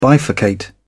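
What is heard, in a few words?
"bifurcate", English, British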